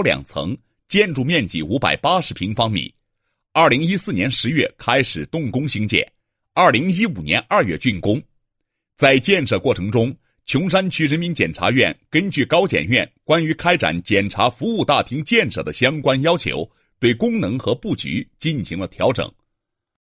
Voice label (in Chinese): 男 检察; 某区人民检察院控告申诉接待大厅